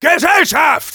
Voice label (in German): Demoman incoming, de